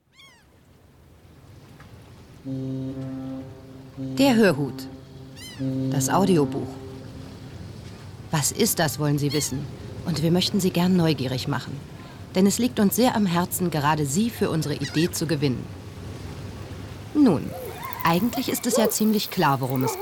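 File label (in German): Erzählung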